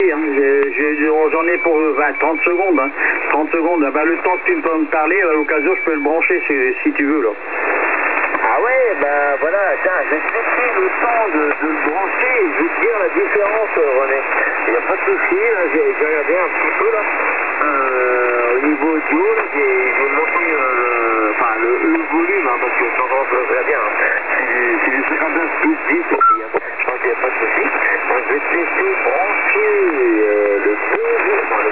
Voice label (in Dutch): onbekend Frans talig LSB